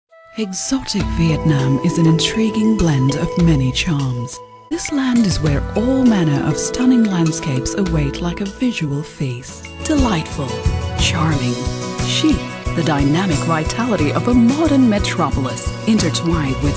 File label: Commercial Voice Over Demoreel